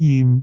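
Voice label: "jim4", Cantonese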